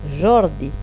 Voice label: "jordi", Occitan